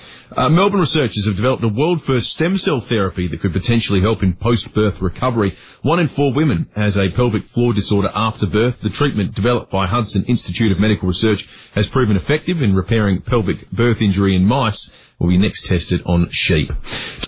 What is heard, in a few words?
POP Radio